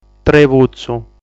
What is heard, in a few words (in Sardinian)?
"Trebutzu", sardu